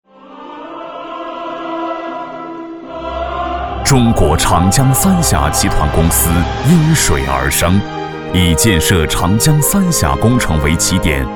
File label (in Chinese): 男 企业宣传; 中国长江三峡集团公司